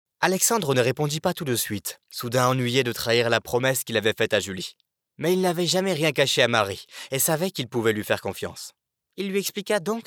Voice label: audio Book

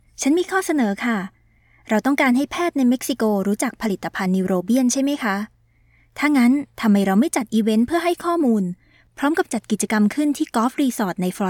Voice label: thai corporate film

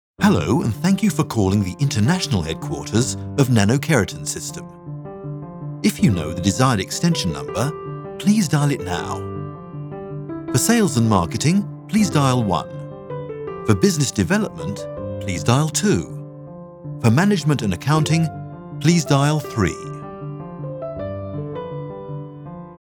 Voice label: IVR music

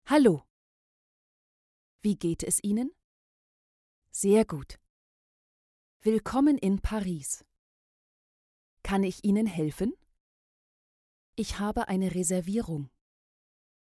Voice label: e learning language German

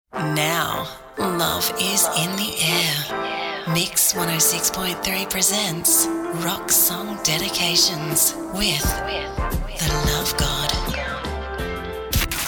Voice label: radio imaging voice overs

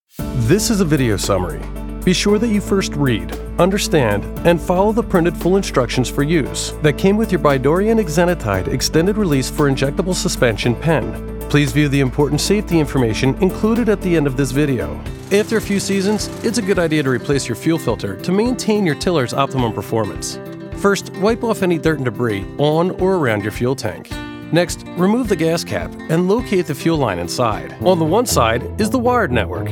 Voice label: narration

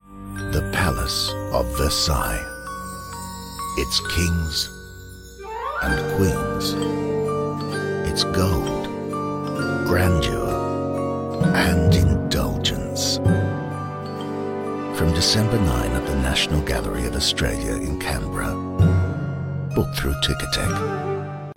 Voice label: TVC; Palace of Versailles; Voice Realm

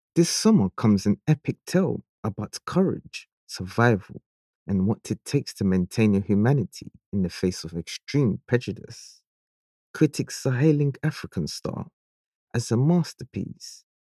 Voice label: african

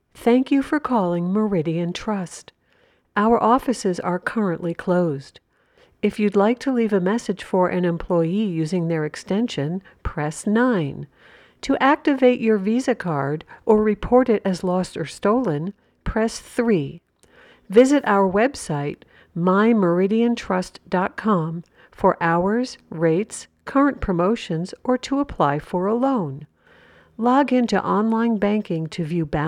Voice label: phone; Meridian-Trust

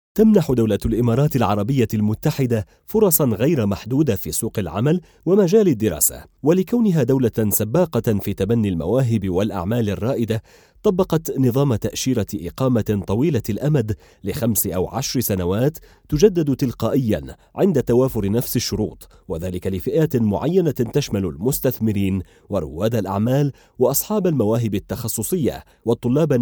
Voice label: formal precise corporate

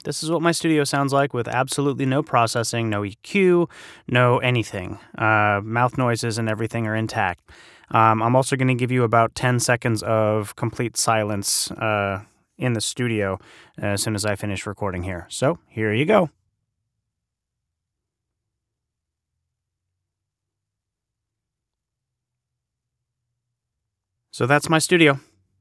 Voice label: studio sample

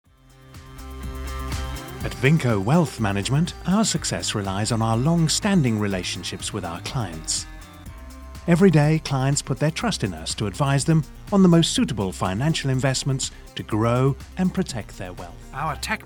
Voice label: Corporate

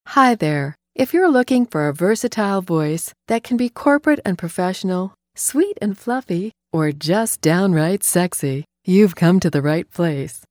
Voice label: Studio Quality

